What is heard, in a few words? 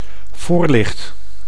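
"voorlicht", Dutch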